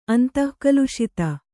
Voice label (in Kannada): "ಅಂತಃಕಲುಷಿತ", Kannada